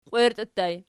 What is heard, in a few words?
"qwierti", Archi